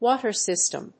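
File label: "water system", English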